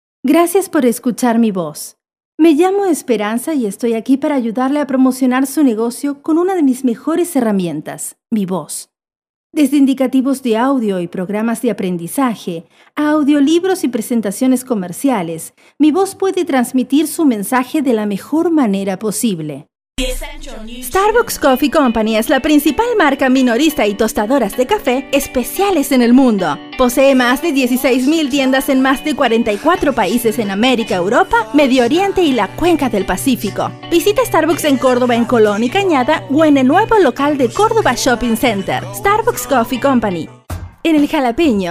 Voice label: Neutral